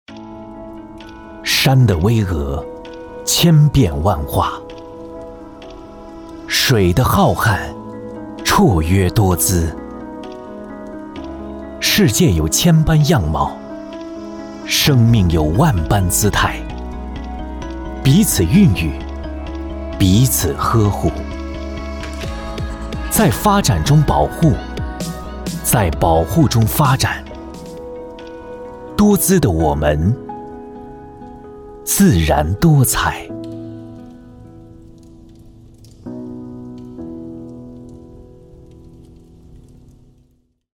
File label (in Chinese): 公益宣传; 多彩自然